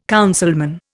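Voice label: "councilman", Indian English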